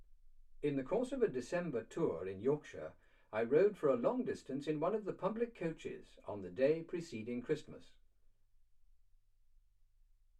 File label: male speech english